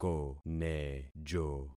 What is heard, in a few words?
"konejo", concat syllables; Affixing experiment